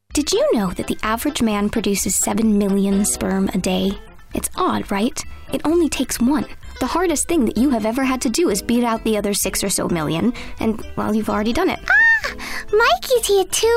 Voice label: voiceover character women